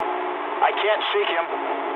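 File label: aircraft damaged mood low, speech English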